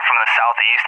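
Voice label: "from the SE", English, USA; DCS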